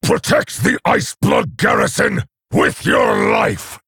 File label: Male Orc Bark